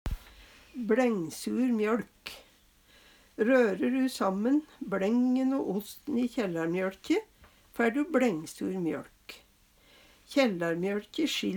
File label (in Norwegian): blaengsur mjoLk, Numedalsmål; Røre du sammen bLængen o osten i kjellarmjøLkje, fær du bLængsur mjøLk.